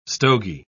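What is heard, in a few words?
"stogy", English, British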